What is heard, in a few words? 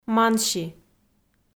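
"манси", Komi, female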